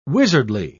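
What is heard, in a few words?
"wizardly", English, British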